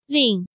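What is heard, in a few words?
"Lìng", Chinese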